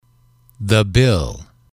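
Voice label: the bill, English, male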